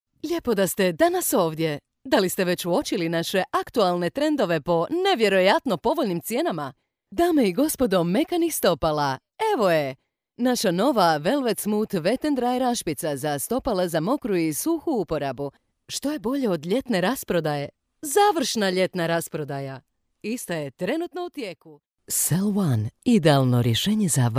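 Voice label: Croatian, female; Professionelle Sprecher und Sprecherinnen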